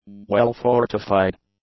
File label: "well-fortified", English; adjectives